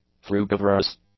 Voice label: "frugivorous", English; adjectives